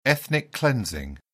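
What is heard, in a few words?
"ethnic cleansing", British English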